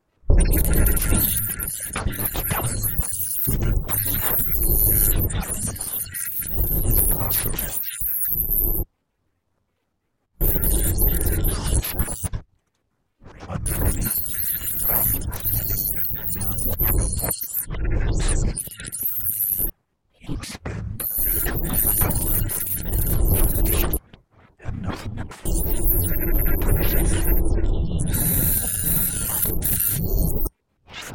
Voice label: interrupted chatter